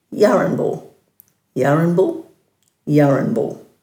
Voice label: "yaranbul", Dhurga; dulagaal

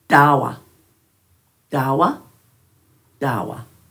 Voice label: "dhawa", Dhurga

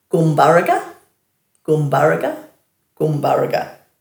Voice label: "gumbaraga", Dhurga

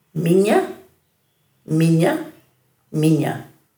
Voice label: "minja", Dhurga

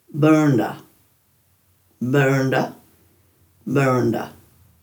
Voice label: "burnda", Dhurga

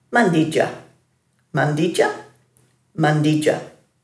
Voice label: "mandidja", Dhurga; meat

